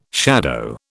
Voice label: "shadow", British

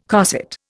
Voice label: "cosset", Indian

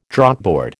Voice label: "Draughtboard", American

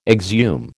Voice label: "exhume", American

